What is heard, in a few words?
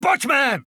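Czech positive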